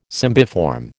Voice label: "cymbiform", American